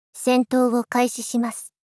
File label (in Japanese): 戦闘を開始します。 ロボット×低音域